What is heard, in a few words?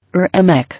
"Aramic", English